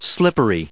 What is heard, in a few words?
"slippery", English